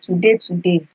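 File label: "today today" Nigerian Pidgin, female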